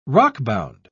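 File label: "rockbound", British English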